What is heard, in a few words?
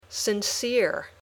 "sincere", English